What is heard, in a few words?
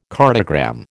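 "cartogram", American English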